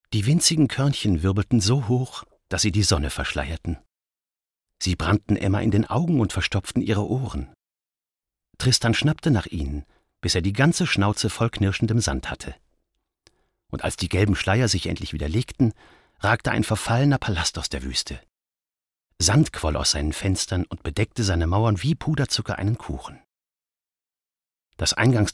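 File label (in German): Erzählung; LOFT VOICES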